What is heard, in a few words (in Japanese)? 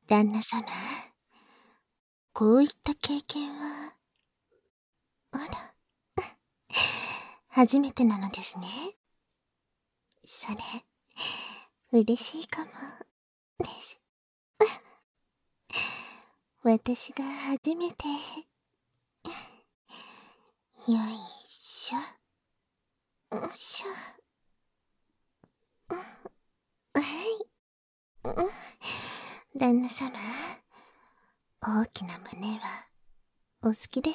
お風呂の縁に腰掛けて パイズリ バイノーラルマイク収録 効果音なし